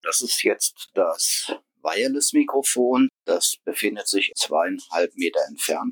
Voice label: USB weisser Ring mit Ext Mic 2m bad